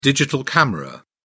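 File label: "digital camera", en, British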